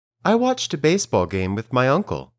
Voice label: Dictation